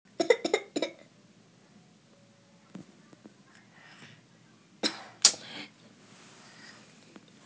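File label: "ju3shou3", Mandarin Chinese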